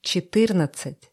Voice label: russische zahl 20; russische zahl 14; Zahlen auf Russisch

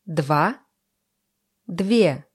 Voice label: russische zahl 20; Zahlen auf Russisch

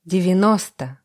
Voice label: russische zahl 20; russische zahl 90; Zahlen auf Russisch

